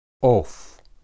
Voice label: "oph", Hebrew

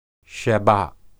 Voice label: "shabbath", Hebrew

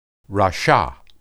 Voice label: "rasha", Hebrew

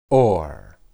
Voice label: light, hebrew

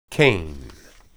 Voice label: "ken", Hebrew

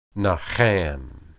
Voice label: "naham", Hebrew

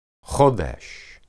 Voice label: hodesh, hebrew

